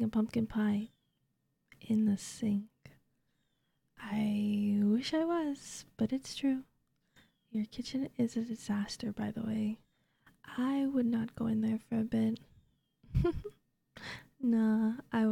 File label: Comfort ASMR Sick